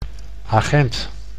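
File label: "agent", Dutch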